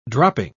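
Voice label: "dropping", British English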